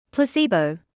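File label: "placebo", British English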